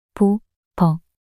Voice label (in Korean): 보버 낱소리 여자